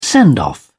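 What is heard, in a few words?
"send off", English, British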